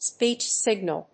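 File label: "speech signal", English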